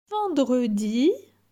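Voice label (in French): "Vendredi", French; phonetique; les voyelles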